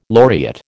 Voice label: "laureate", American

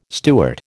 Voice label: "Stuart", American

